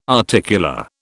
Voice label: "articular", British